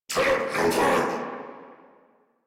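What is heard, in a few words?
Reload, English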